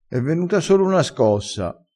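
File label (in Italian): "scossa", dialetto crespinese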